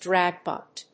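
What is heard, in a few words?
"drag bunt", English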